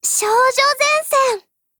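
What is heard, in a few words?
TITLE CALL, Japanese; PPsh41 Mod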